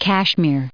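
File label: "Kashmir", US English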